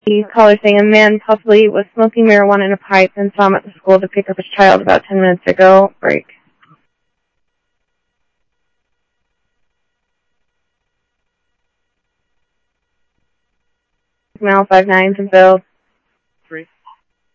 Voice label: Scottsdale Police, scanner audio; H-Scottsdale; SCTSDLE PD - PD2

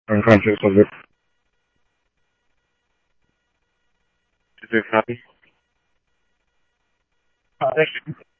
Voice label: Police, scanner audio trunk

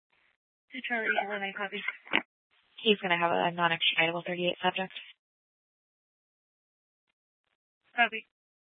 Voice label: Mesa PD Fiesta Dispatch

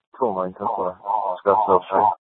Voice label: Dispatch; Scottsdale

